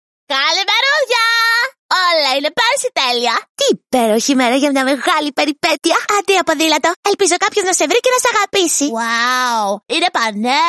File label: greek animation